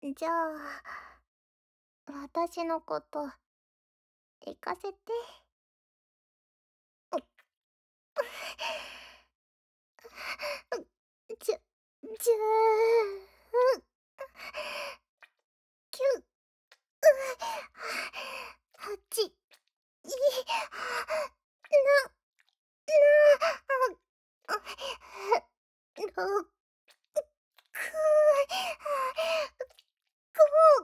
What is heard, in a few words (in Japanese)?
彼女がイくまでカウントダウン, SE控えめ